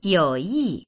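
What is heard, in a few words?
"you3yi4", Mandarin Chinese; yǒuyì; 友谊; 友情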